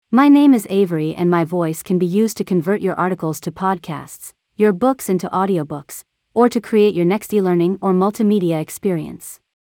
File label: AI English Voiceover